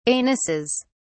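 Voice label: "anuses", British English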